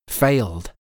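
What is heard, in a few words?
"failed", English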